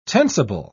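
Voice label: "tensible", British English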